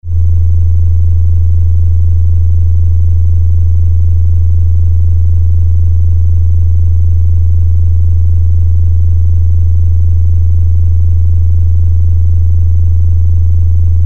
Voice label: Flu Chest Cold Cough